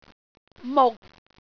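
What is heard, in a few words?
"muk6", Cantonese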